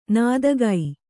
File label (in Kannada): "ನೀತಿಕೆ", kannada